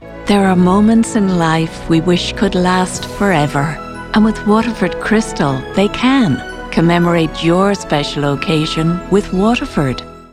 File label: Commercial